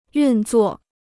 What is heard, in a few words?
"运作", Chinese